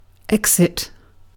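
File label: "exit", British English; pronunciations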